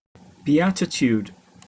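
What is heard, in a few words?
"beatitude", British English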